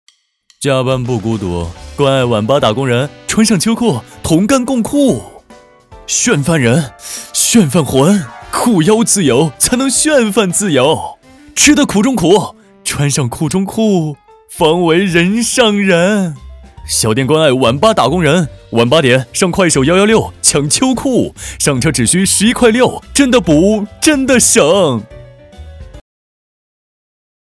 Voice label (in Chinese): 幽默风趣; 快手晚八抢秋裤